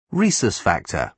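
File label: "rhesus factor", British English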